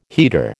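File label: "heater", American English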